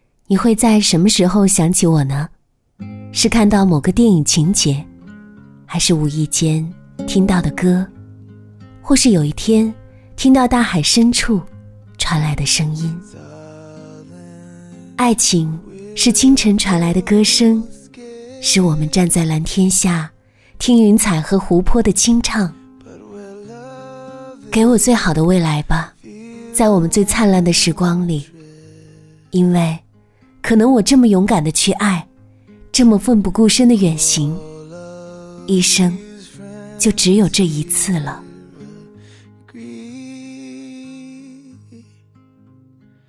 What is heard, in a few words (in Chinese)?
旁白 温柔甜美; 婚礼微电影